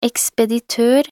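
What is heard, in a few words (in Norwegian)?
"ekspeditør", Norwegian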